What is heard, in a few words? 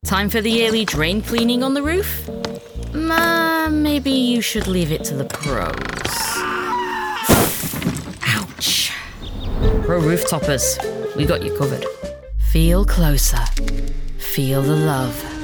Commercial